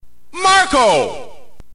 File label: narrator